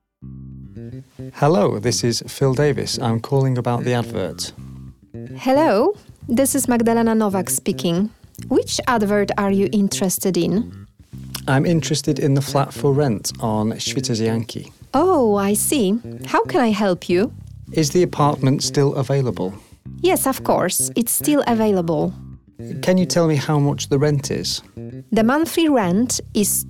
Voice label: Dialog